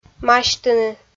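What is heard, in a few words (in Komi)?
"масьтыны", Komi-Zyrian, female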